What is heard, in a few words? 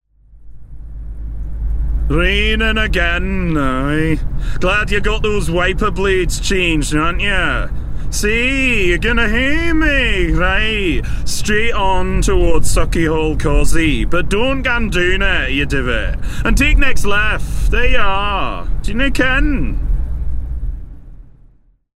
SEAT SATNAV GLASGOW; seat reveals its media audio recognition technology smart nav system